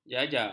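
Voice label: "jaja", Malay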